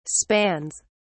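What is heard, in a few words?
"spans", English, British English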